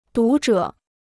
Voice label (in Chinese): "读者", Chinese